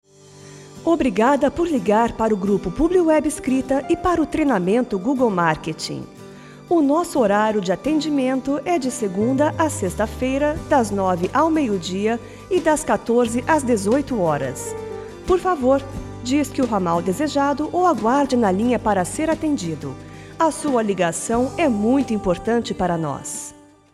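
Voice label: Brazilian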